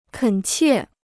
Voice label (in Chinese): "恳切", Chinese